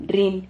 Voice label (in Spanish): "rrin", silabas